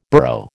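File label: "burro", American English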